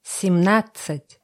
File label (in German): russische zahl 20; Zahlen auf Russisch